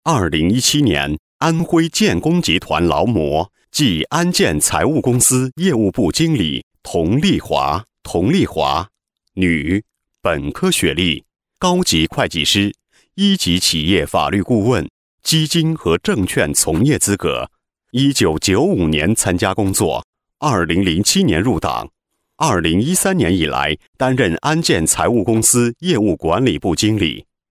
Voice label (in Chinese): 男 专题 人物解说; 安徽建工集团劳模